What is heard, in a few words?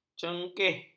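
"cengkeh", Malay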